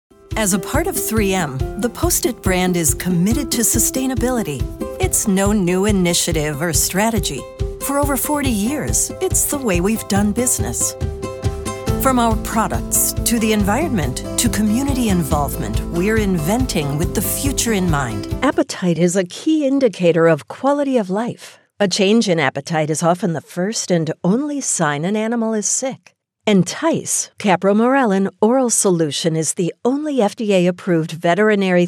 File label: Explainer